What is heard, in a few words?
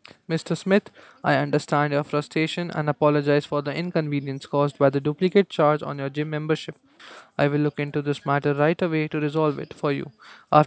Jabra Engage 50